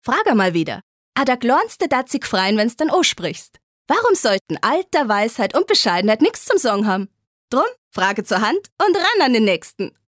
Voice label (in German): Dialekt Bayerisch